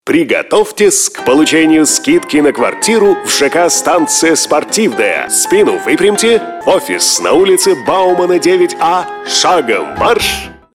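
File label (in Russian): diktor sovetskoaya radio gimnastika, Soviet radio announcer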